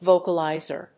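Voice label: "vocalizer", English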